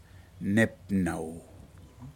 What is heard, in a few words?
seashell "nepnou", Aneityum